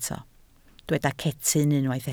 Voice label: "cetyn", Welsh